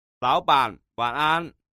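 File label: "laoban, wanan", Mandarin Chinese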